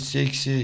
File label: "sikse", Bavarian